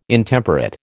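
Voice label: "Intemperate", American English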